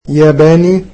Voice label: "Japanese", egyptian

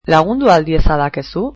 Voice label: Can you help me, basque

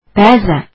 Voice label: sometimes, azeri

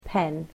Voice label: "pen", English

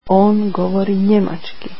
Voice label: he speaks German, croatian

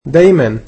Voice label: "always", egyptian

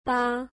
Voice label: eight, mandarin

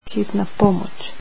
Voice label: "ambulance", Croatian

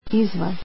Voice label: outside, croatian

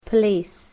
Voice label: "police", english

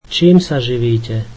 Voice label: What do you do for a living, slovak

